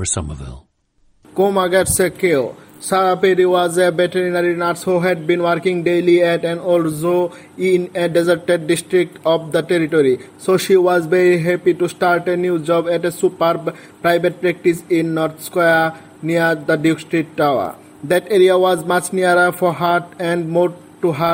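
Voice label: bangladesh, International Dialects of English Archive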